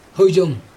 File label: "hoid͡ʑoŋ", Chug, egg